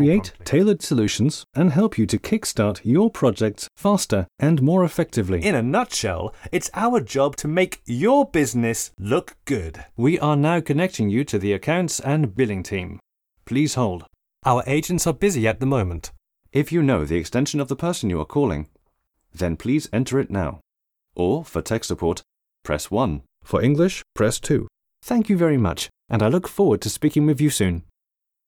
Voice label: Voice Over IVR